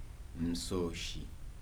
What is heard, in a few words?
"adult", Kibembe